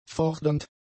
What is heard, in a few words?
"fordernd", German; adjectives